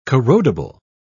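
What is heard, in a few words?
"corrodible", British English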